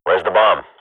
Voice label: radio bot query bomb, swat; Counter Strike Model Pack; CS:GO